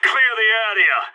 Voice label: bomb exploding, sas; agents